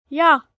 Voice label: German Yes, Response, Foreign, 1 girl, 10 yrs., German; Talking Sound Effects